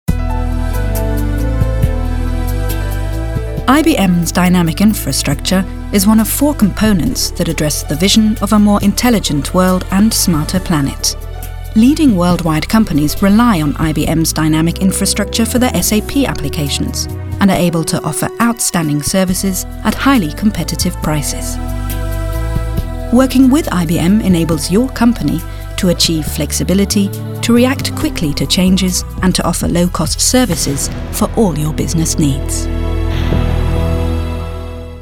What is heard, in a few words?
Corporate Demo